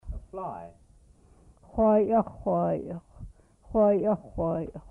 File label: "fly insect", Twana